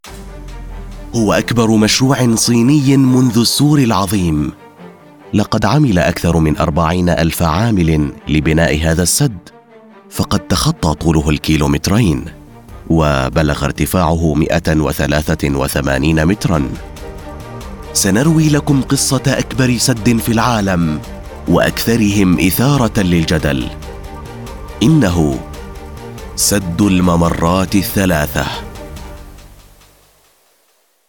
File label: Documentary Character Narrator Standard Arabic; Three Gorges Dam